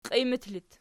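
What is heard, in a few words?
"qiimat" locative, Archi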